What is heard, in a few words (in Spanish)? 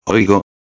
"oigo", espanhol